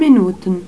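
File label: "MINUTEN", German; telltime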